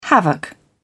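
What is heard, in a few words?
"havoc", British English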